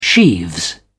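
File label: "sheaves", British English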